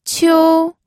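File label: "qiu", Mandarin Chinese, first tone; pinyin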